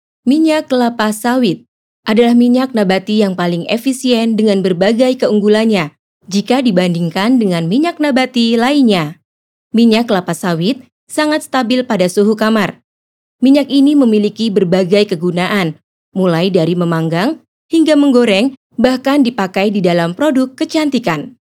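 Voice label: FORMAL Indonesian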